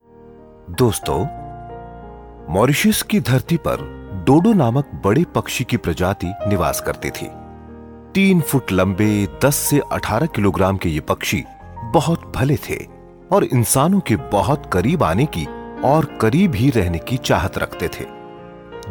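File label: narration hindi, Hindi male voice; Hi Baritone timbre. Vocal styles : professional and authoritative.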